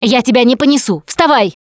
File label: revive friend loud, Russian; Producer